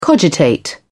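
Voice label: "cogitate", British English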